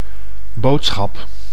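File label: "boodschap", Dutch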